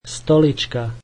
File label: chair, slovak